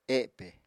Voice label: "epe", Apatani